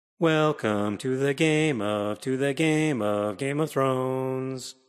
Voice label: Too loud recording sample 12 dbFS